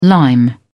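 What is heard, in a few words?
"lime", British English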